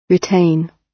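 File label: "retain", British English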